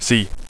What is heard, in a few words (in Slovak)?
"c", anglicke